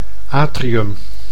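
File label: "atrium", Dutch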